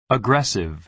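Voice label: "aggressive" American English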